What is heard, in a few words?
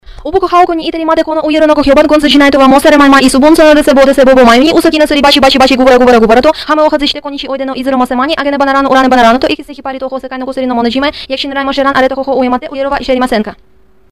japan tongue-twister